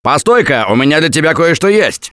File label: Alert Give Item Stop, russian; Gambler